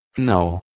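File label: "gneo", Proto-Indo-European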